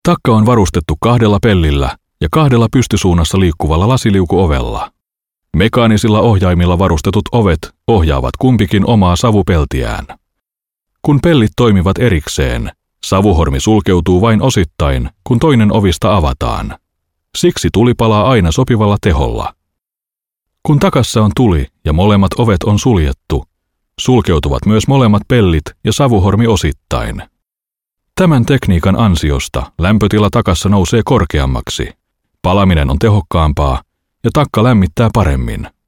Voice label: eLearning/Training Male Finnish

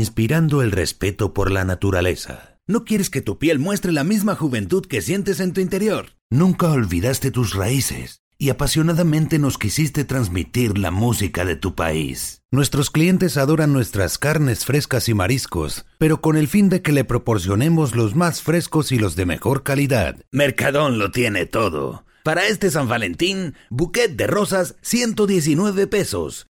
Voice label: eLearning/Training Male Spanish (Latin American)

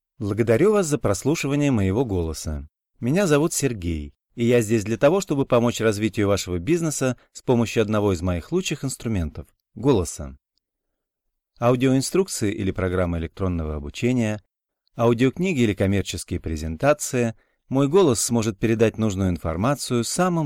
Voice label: eLearning/Training Male Russian

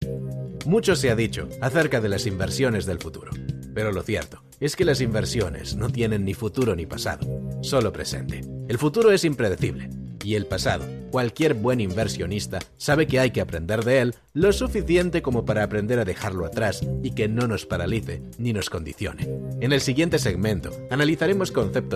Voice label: eLearning/Training Male Spanish (European)

